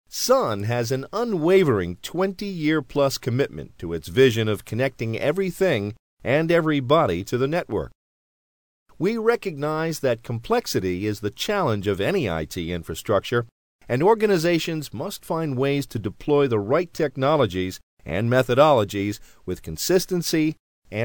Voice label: Corporate Narration